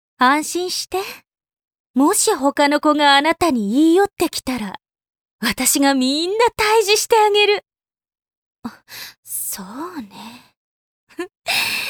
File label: yandere